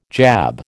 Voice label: "jab", American

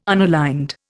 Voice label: "unaligned", Indian

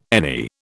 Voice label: "any", British

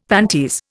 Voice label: "panties", Indian; Dictionary

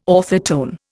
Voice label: "orthotone", Indian

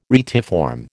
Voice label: "retiform", American

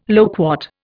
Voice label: "loquat", Indian

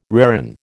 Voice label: "reran", American

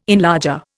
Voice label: "enlarger", Indian

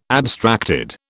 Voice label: "abstracted", British

